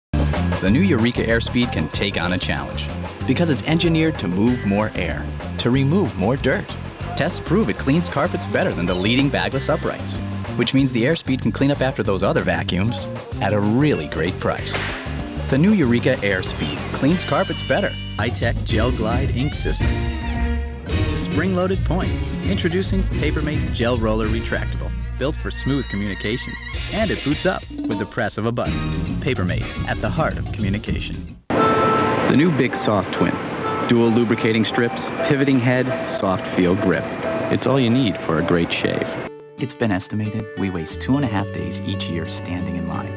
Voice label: commercial, men

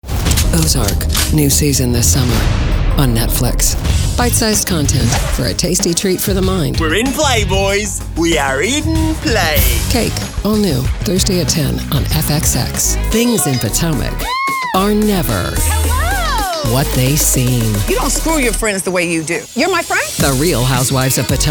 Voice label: promo women entertainment

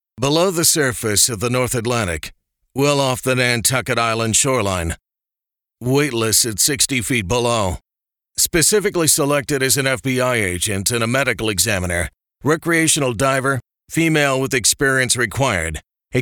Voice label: audiobooks men mystery thriller

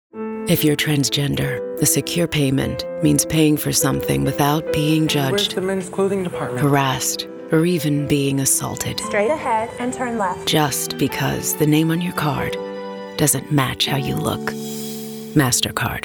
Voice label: commercial women

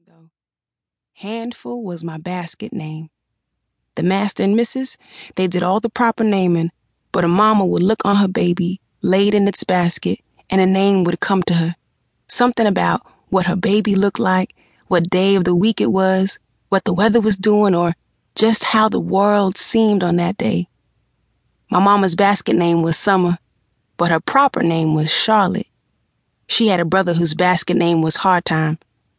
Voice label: audiobook

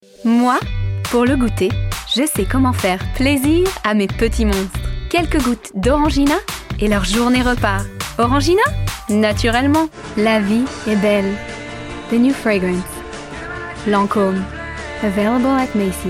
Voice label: languages french and french accented

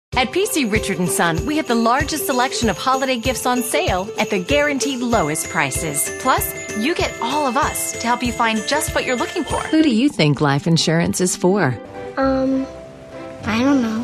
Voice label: commercial; commercial women

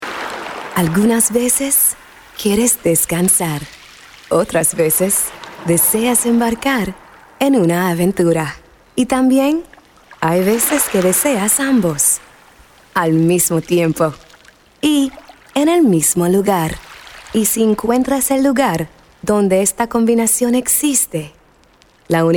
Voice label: languages women spanish commercial

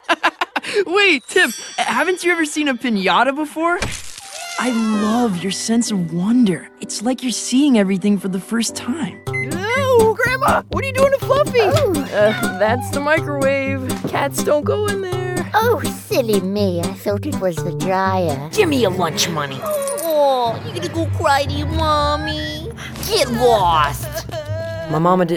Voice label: animation men

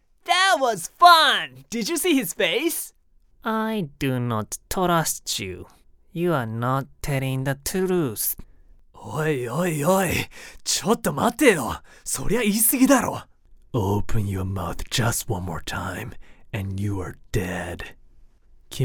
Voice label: animation men, voices men

